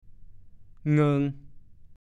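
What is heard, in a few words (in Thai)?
"เงิง" ภาษาโคราช; เปิดอ้า กว้าง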